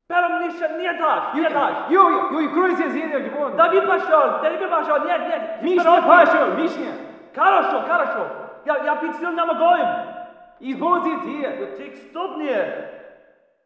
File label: walla rus mountain conv